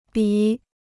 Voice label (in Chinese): "笔", Chinese